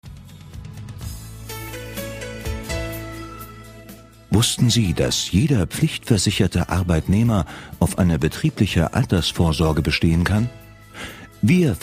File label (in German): Werbespot; Voitl & Sommer